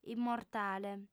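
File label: "immortale", female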